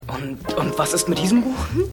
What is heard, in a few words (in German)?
Junge Im Imbissladen; Pleasantville